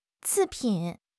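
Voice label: "次品", Mandarin Chinese; HSK; HSK6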